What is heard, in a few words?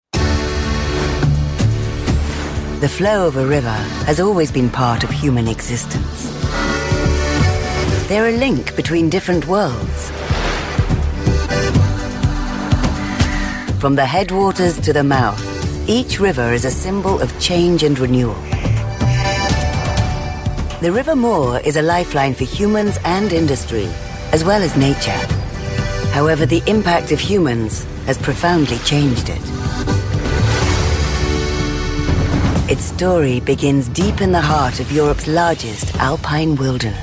narration